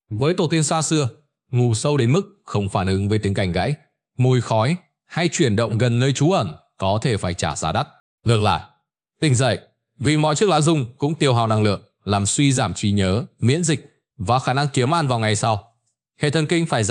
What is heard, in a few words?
narration